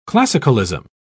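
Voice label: "classicalism", English, uk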